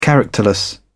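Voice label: "characterless", British English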